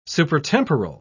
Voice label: "supertemporal", en uk

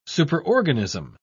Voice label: "superorganism", en uk

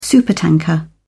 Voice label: "supertanker", en uk

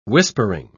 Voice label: "whispering", uk

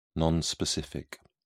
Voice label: "non specific", en uk

